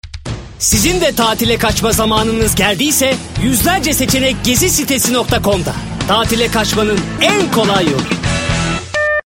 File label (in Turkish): reklam enerjik dinamik; gezisitesi